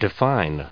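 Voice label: "define", US English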